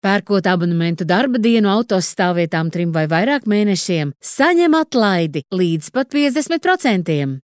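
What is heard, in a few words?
Latvian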